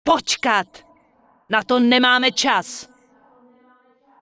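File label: Night Elf Female error bag full, Czech; wowpreklad; czwow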